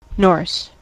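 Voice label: "Norse", US English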